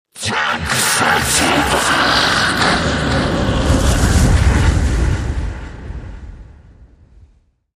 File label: dragon Voice; shangrila